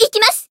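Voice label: Voice attack, Japanese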